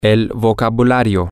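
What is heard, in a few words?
the vocabulary, Spanish, male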